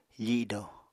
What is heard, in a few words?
"fido", Apatani